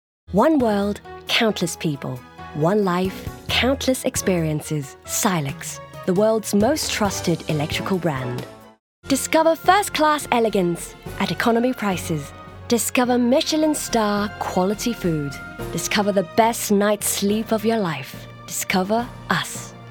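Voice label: British, TV commercial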